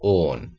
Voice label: "oon", Middle English